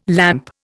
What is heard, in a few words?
"lamp", Indian